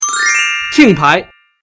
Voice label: ting, boy; ChangChunMJ